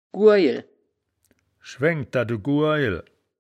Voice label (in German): "guurjel", wittlich